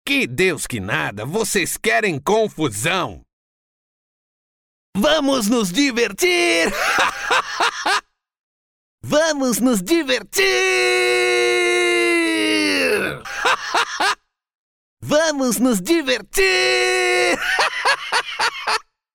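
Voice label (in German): Portuguese Charge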